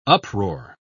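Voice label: "uproar", spoken word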